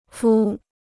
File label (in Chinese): "敷", Chinese